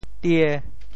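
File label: "tie5", Teochew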